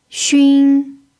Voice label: "xun1", Chinese pinyin